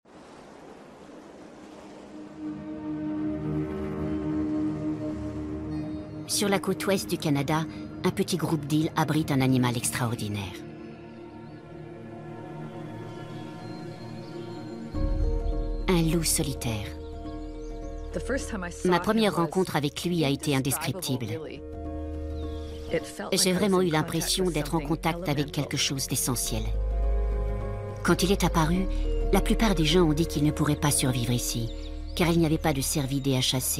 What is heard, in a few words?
Voice Over